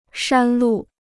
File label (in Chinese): "山路", Chinese